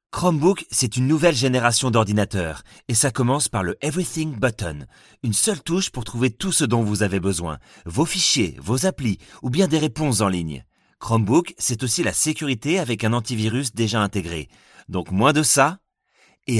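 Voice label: French, Commercial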